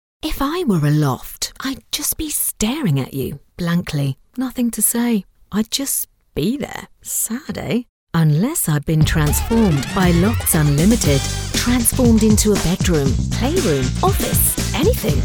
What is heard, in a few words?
Commercial reel